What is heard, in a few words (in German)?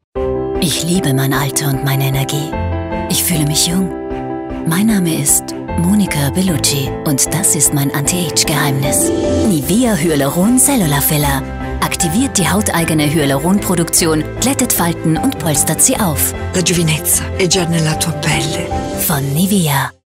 Sprecherdemo TV; Belucci; NIVEA